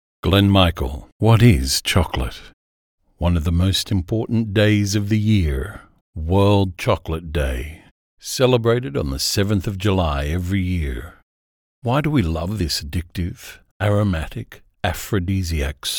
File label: Commentary Narrator; What is chocolate